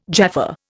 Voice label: "Jaffa", Indian